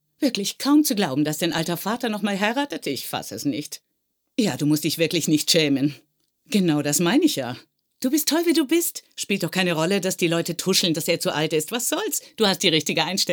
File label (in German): Synchron; Die Nachbarin